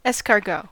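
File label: "escargot", US English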